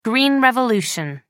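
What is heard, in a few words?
"green revolution", British English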